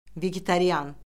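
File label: "vegetarian", Azerbaijani; Orfoqrafiya Lüğəti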